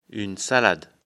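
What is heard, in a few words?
"salade", French; afrikalan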